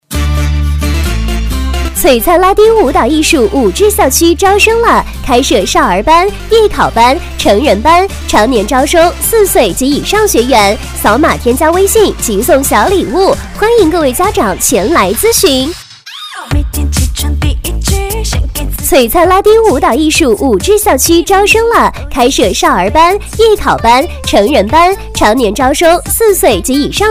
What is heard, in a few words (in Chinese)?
女, 促销, 拉丁舞招生; 璀璨拉丁舞招生